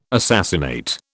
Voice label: "assassinate", British

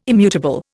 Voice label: "immutable", Indian

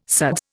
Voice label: "suds", Indian

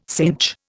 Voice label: "cinch", Indian

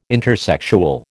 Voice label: "intersexual", American

